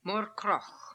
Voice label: "Morkrokh", pronounciations; Fourth Way; Beelzebub

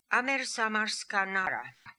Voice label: "Amersamarskanara", pronounciations; Fourth Way; Beelzebub

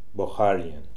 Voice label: "Bokharian", Beelzebub pronounciations; Fourth Way

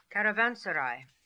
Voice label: "Caravanseray", Beelzebub pronounciations; Fourth Way

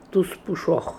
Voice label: "Toospooshokh", pronounciations; Fourth Way; Beelzebub

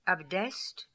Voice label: "Abdest", Beelzebub pronounciations; Fourth Way

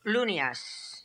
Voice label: "Loonias", pronounciations; Fourth Way; Beelzebub

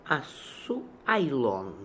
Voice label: "Asooailon", Beelzebub pronounciations; Fourth Way; RUS

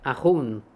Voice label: "Ahoon", pronounciations; Fourth Way; Beelzebub

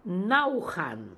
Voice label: Naookhan, Beelzebub pronounciations; Fourth Way

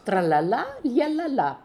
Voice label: "Tralalaooalalalala", pronounciations; Fourth Way; Beelzebub

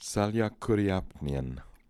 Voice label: "Saliakooriapnian", pronounciations; Fourth Way; Beelzebub

